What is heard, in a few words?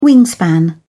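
"wingspan", British English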